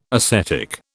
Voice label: "ascetic", British